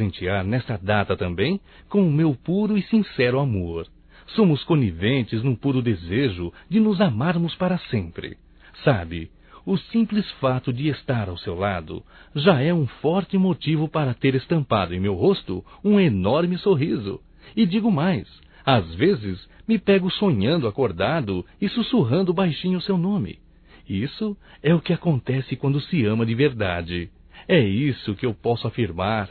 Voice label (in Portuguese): ANIV Romântico (M)